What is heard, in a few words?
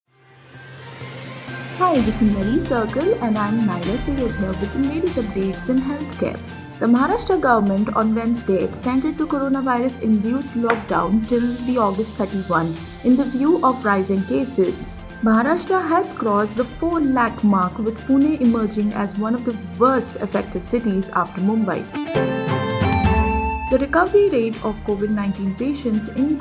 english news